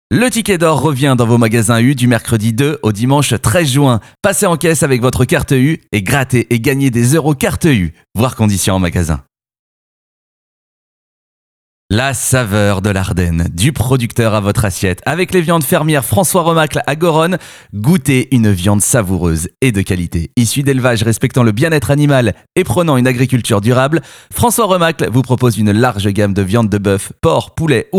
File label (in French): voix pub